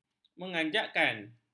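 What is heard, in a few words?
"mengganjakkan", Malay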